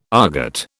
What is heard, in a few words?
"aargot", British